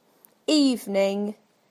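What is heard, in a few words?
"evening", British English; PictureDictionary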